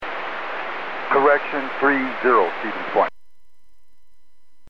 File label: Aviation 122.7; KSTE